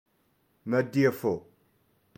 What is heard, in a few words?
"Madyafou", Touareg; Buongiorno